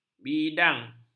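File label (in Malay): "bidang", Malay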